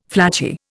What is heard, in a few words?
"flattie", Indian